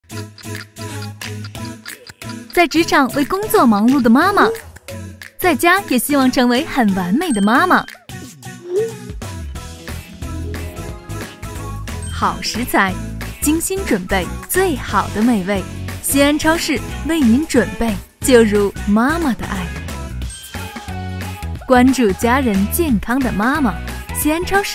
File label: Commercial